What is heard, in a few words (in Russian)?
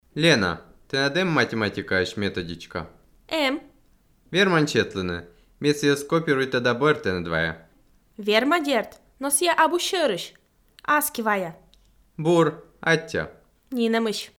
dialog